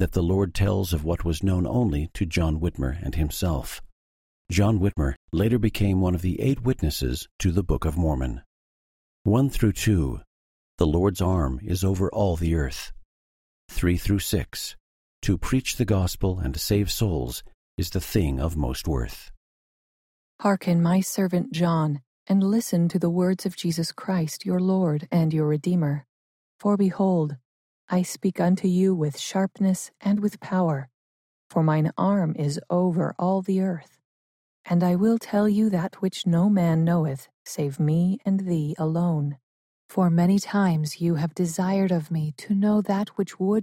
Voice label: section female voice eng; the doctrine and covenants